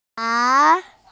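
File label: "wan2", Chinese, F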